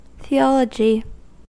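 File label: "theology", American English; pronunciations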